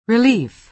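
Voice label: "relief", American English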